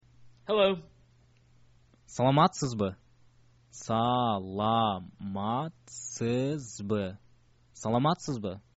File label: hello, kyrgyz